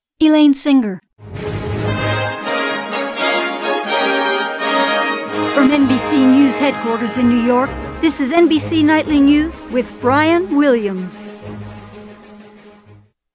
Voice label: Nightly News Open; NBC; Auditions